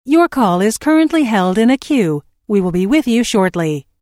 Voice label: Telephone prompt: Your call is currently held in a queue we will be with you shortly, Recorded Voice